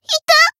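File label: damaged, Japanese; melody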